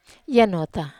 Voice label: "anota", wamesa